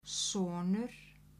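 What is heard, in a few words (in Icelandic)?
"sonur", Icelandic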